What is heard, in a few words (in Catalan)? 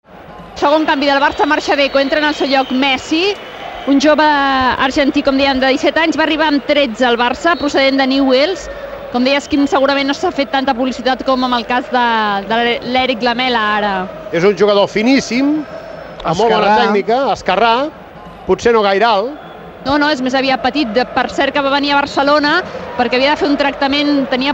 Debut Messi Cat R; 2004